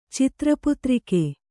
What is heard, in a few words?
"ಚಿತ್ರಪುತ್ರಿಕೆ", kannada